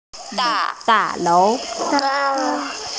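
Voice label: "da4lou2", Mandarin Chinese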